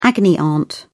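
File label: "agony aunt", British English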